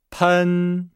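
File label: "pen", Chinese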